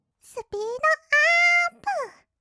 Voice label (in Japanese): すぴーどあーーーっぷ, kawaii voice; 音ゲー